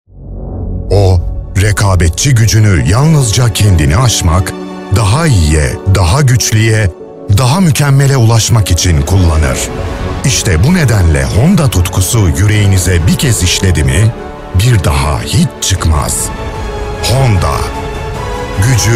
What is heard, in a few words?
Turkish male